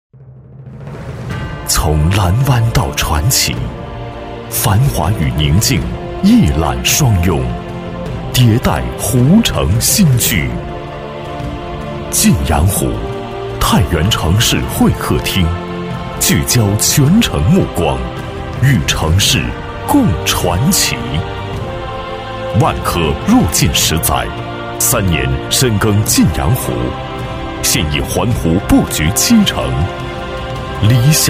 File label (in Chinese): 房产广告; 万科蓝湾传奇